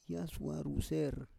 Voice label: "yasuar ruser", Roon

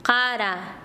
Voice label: Chanka, quechua; pellejo

